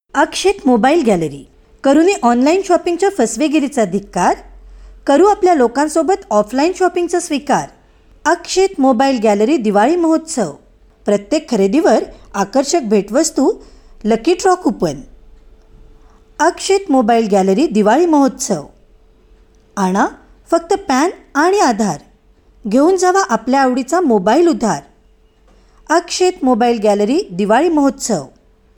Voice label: Marathi, Female

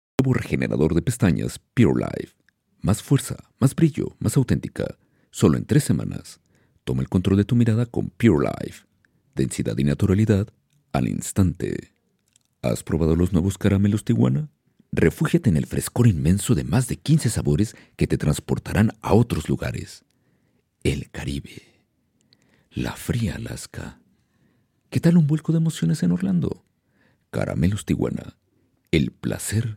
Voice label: Spanish (LA), Male

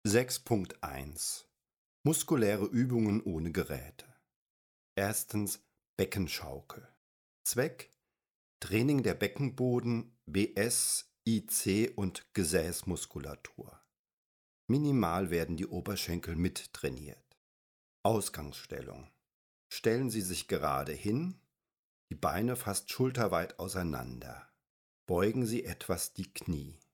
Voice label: German, Male

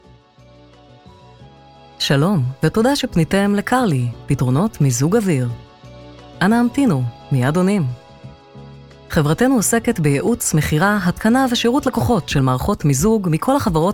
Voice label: Hebrew, Female

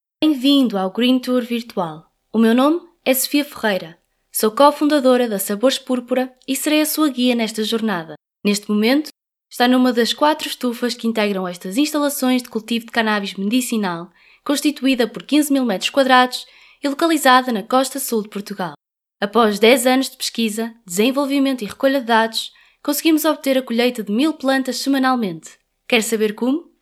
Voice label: Portuguese (Europe), Female

